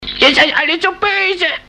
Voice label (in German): boese; cstrike; forlix